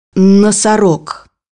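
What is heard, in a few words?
"rhinoceros", Russian; bukvar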